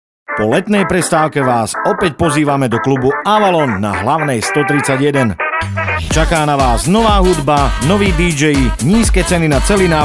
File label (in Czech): reklamni spot; avalon